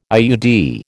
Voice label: "IUD", American

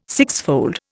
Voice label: "sixfold", Indian

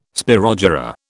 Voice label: "spirogyra", British

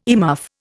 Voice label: "earmuff", Indian

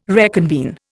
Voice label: "reconvene", Indian

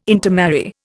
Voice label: "intermarry", Indian

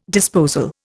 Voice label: "disposal", Indian

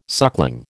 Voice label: "suckling", American

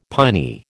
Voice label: "piny", American

